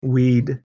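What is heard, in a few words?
"wid", Proto-Indo-European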